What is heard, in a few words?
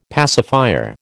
"pacifier", American